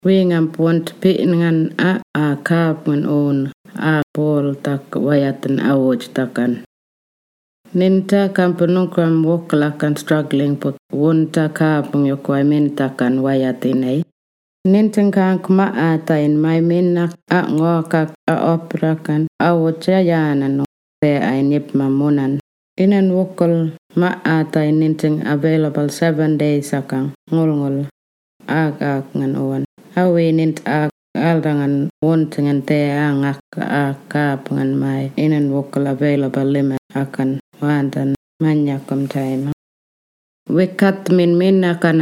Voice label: wik mungkan emergency hardship assistance